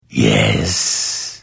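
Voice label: Hello, Vo; Morrowind GOTY GOG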